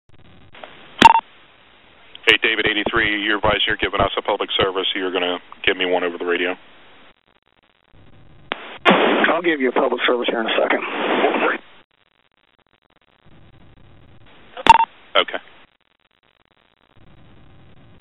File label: radio; Medical; Pub Serv